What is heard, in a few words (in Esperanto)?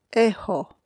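"eĥo", Esperanto; ehxo; vortoj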